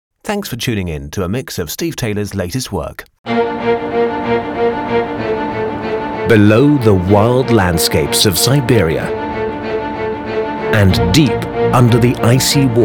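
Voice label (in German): British werbung, sprecher englisch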